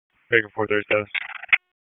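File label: Raleigh Police Downtown District Dispatch, p25; wake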